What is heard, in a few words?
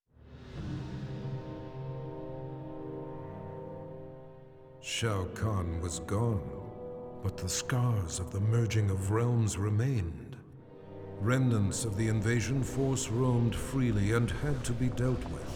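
music voice-over endings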